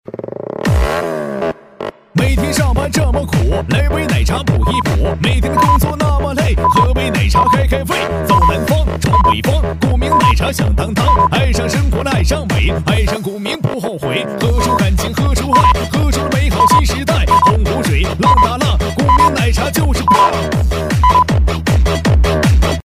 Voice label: 男W3-促销另类喊麦样音, 喊麦激情 夸张震撼

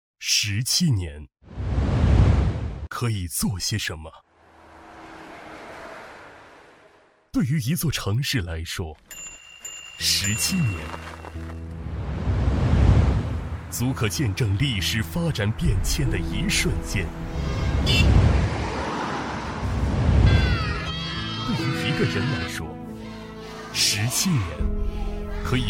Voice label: 男 稳重厚重; 男D7-激情大气; 舒缓抒情; 昆明第一中学西山学校

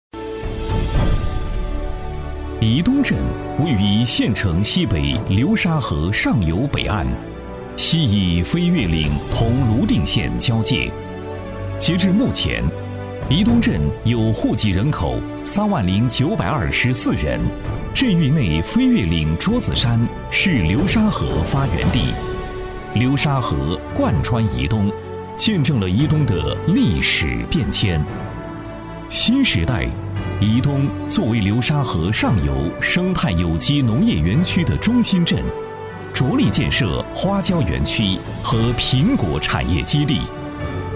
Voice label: 男 项目介绍 大气厚重; 正气明亮; 沉稳大气; 沙盘重要节点介绍

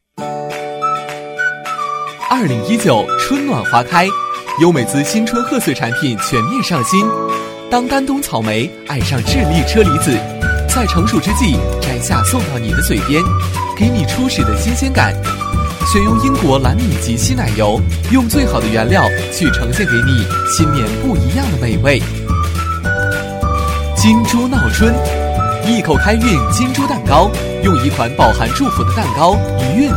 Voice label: 男 广告 年轻活泼; 年轻活力 年轻时尚; 优美滋

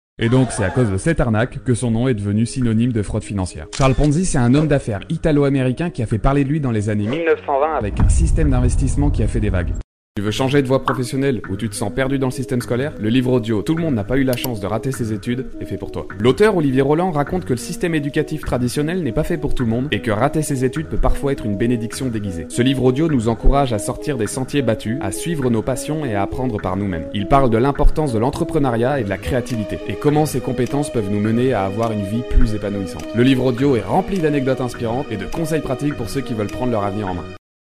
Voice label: 法语男声

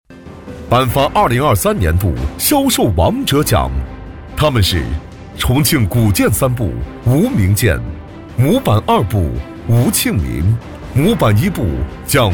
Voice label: 男 颁奖 销售王者奖; 2023年度销售王者奖; 大气磁性

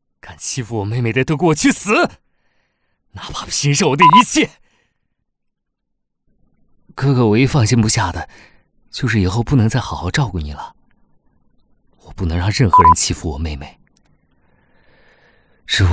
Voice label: 男 角色 年轻哥哥; 男C9-年轻质感 素人自然

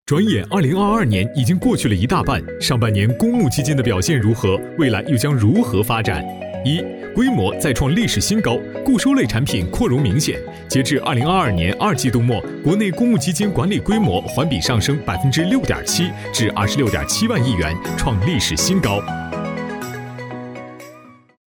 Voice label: 男-MG配音, 浑厚大气 轻松活泼; 转眼2022年

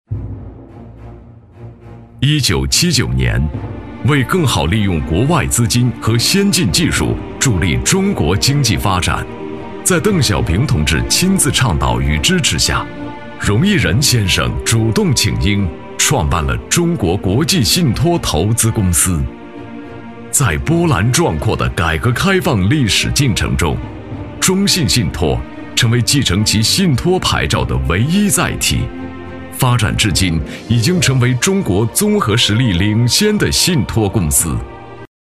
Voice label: 男 纪录历史感; 纪实记录; 中信信托

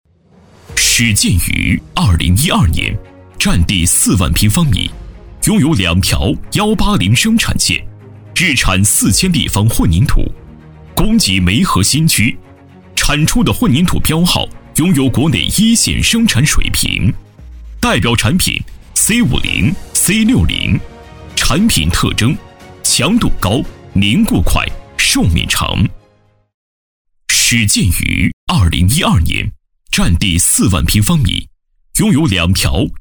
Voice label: 男 宣传片 混凝土工厂 大气硬朗; 男1026-大气硬朗; 大气浑厚

